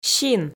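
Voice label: "син", Komi, female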